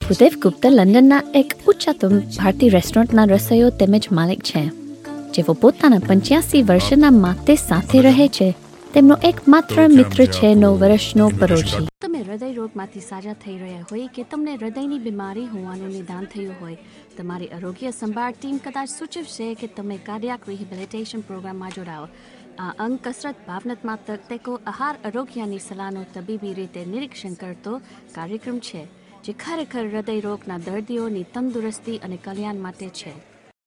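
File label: Gujarati, general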